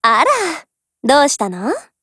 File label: DIALOGUE JP